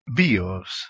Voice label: "bios" Ancient Greek